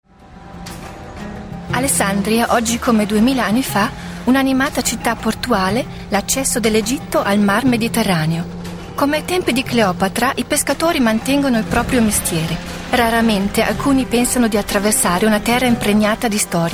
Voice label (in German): IT Kommentar Neutral